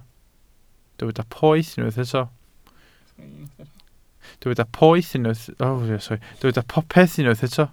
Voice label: "popeth", Welsh

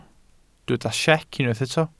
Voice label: "siec", Welsh

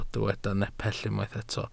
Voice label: "nepell", welsh

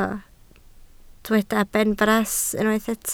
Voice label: "benfras", Welsh

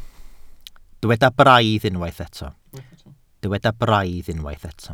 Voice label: "braidd", Welsh

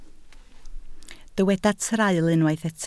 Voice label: "traul", Welsh

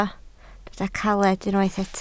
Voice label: "caled", Welsh

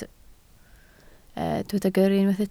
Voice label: "gyrru", Welsh

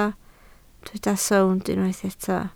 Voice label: "sownd", Welsh

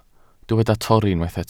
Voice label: "torri", Welsh

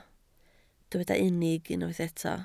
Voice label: "unig", Welsh

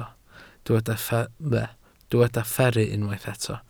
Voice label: "fferru", Welsh

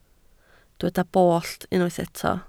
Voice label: "bollt", Welsh

